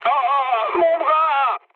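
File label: damaged pilot, mood low, French; War Thunder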